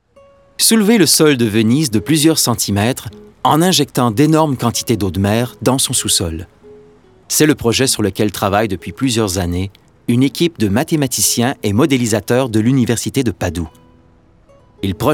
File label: Narration